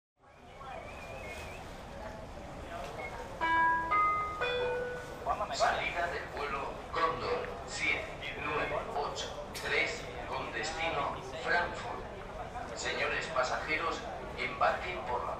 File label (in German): Flughafen Ansagen, nach Frankfurt; Condor